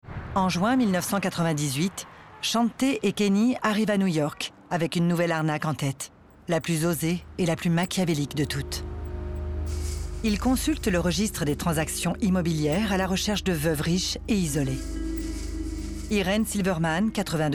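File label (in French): femmes diaboliques, voix off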